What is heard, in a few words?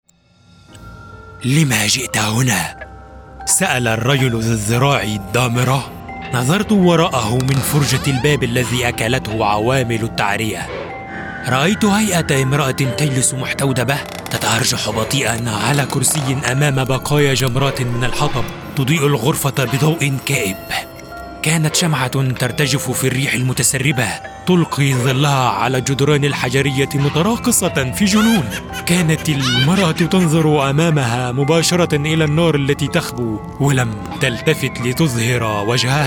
Arabic audiobooks